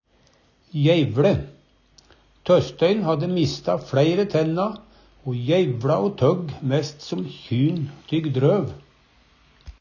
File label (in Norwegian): jeivLe, Numedalsmål